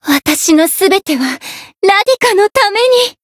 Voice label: Voice variable chant jp; ewella